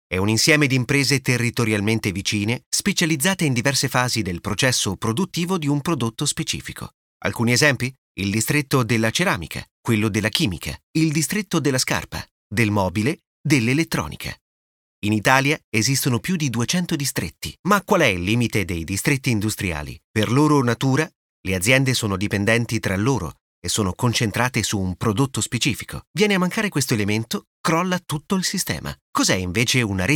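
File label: Italian; Documentaries; Male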